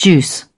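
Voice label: "juice", British English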